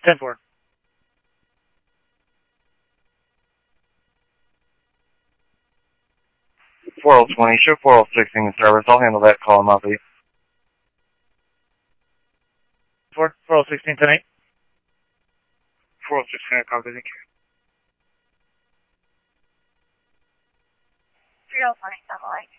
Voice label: Scottsdale Police, scanner audio